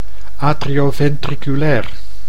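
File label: "atrioventriculair", Dutch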